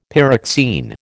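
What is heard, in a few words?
"pyroxene", American English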